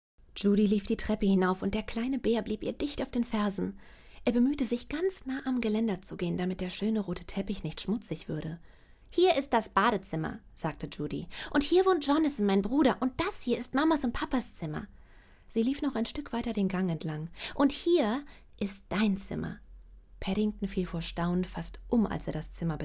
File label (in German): Roman Kinderbuch Deutsch; PADDINGTON